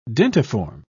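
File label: "dentiform", British English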